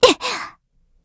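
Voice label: Vox Landing, Korean; heroes; Estelle; kingsraid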